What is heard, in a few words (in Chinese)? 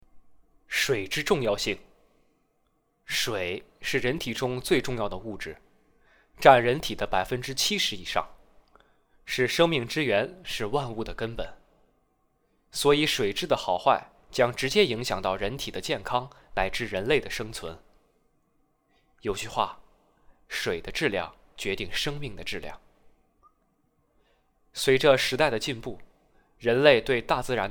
旁白配音 年轻厚重轻松忧郁, 男, 普通话, 青年（18-35）; 水之重要性